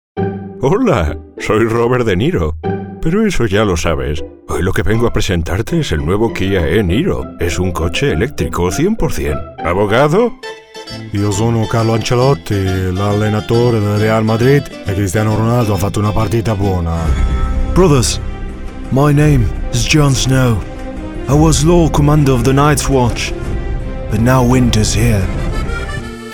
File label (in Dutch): spaans